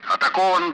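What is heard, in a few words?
Speech, Russian, loud; Allied Flight; defending; DCS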